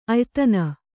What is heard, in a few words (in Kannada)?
"ಆಯಿದೆನೆ", Kannada